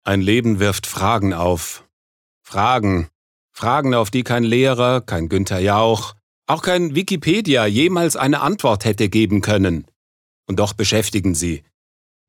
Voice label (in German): Schauspiel Energisch